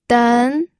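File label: "den", Mandarin Chinese, second tone; pinyin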